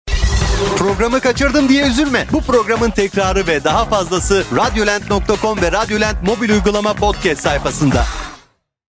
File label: podcast; tnt